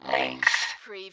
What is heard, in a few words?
Robot voice "links"; lynne publishing